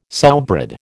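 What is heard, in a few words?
"sowbread", American English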